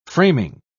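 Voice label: "framing", English, British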